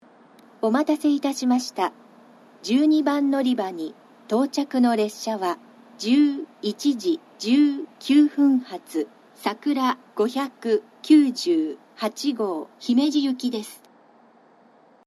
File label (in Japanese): 12番のりば接近放送, 新幹線ホーム; 新鳥栖; さくら598号 姫路行き